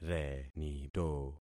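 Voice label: "renito", concatenated syllables; Affixing experiment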